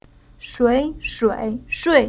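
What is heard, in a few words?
"Shui", chinese syllable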